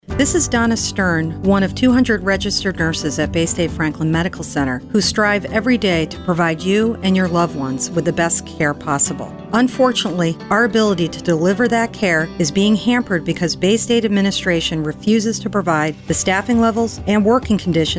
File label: Radio Ad; MNA; Safe Staffing